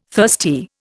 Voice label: "thirsty", Indian

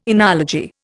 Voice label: "enology", Indian

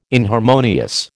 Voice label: "inharmonious", American

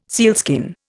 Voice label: "sealskin", Indian

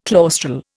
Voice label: "claustral", Indian

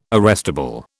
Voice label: "arrestable", British

